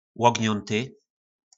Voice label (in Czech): "Łogńónty", nářečí Po našimu; Ohnutý